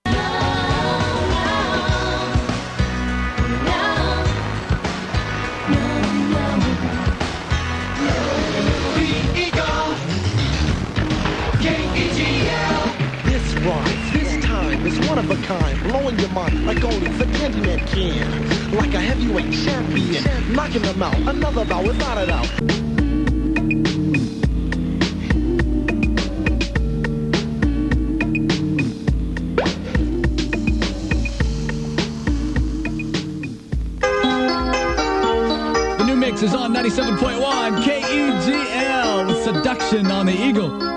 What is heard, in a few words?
Aircheck; KEGL All Hit 971